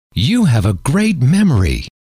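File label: you have a great memory, male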